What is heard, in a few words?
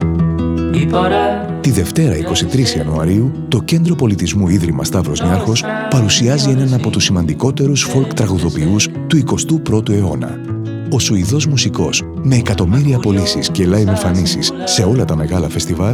SPOT; SNFCC